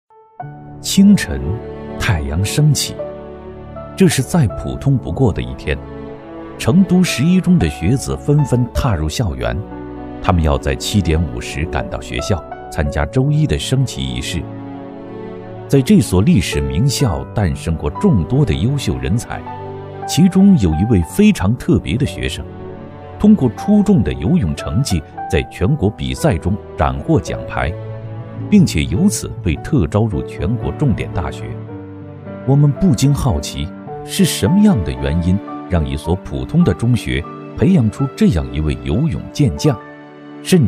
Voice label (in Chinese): 男 纪录片 学校